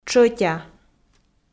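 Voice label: "тшӧтя", Komi, female; audio dict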